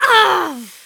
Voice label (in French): dernier fem